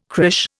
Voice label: "crÊche", Indian

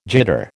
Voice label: "jitter", American

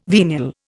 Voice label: "venial", Indian

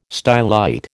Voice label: "stylite", American

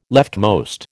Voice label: "leftmost", American

